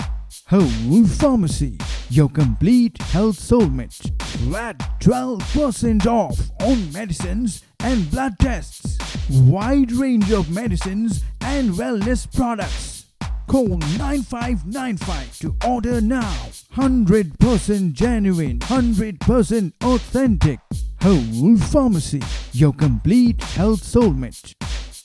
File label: English Promo Voice Pharmacy Music; Whole Pharmacy